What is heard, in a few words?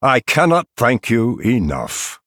Male Night Elf, Thanks